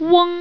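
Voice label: "weng", Chinese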